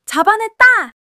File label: excitement; monologue